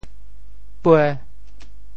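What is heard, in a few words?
"pue5", Teochew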